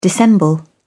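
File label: "dissemble", British English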